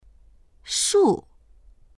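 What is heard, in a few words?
"shu4", Chinese; 树; 樹木、木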